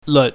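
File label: "leut", Cantonese, low tone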